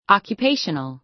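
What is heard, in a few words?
"occupational", American English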